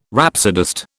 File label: "rhapsodist", British